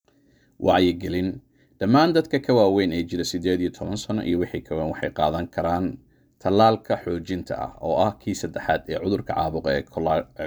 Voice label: psa announcement